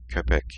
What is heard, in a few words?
Dog in Turkish